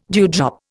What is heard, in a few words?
"dewdrop", Indian